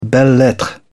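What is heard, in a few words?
"belles-lettres", British English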